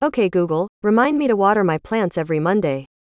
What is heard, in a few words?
us female remind me to water my plants every monday